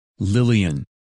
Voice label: "Lillian", women names; Английские имена: женские